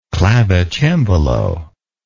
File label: "clavicembalist", English